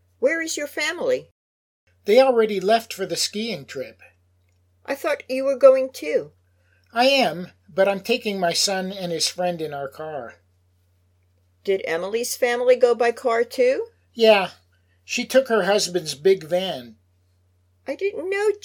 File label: Complete Natural Dialog